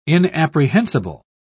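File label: "inapprehensible", British English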